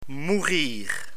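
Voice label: "mourir", French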